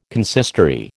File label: "consistory", American